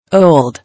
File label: "Old", English